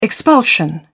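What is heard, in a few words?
"expulsion", British English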